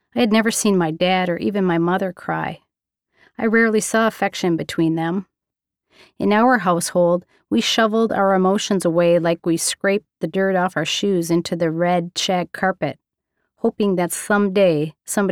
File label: Emotional